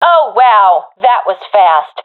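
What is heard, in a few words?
round won quickly, professional female; vo; Counter Strike Model Pack; CS:GO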